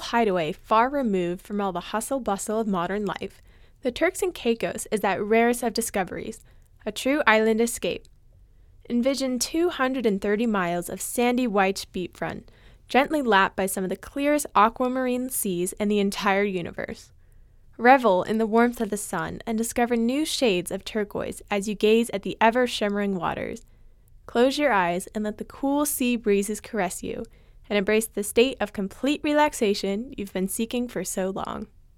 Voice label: ADK A51 Tube Preamp, Voiceover